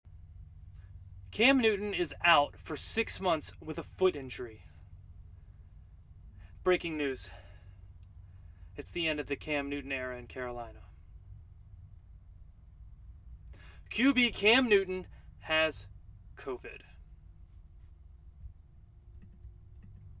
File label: Sports Announcer